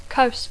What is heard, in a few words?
"coast", English